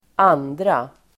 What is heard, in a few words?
"andra", Swedish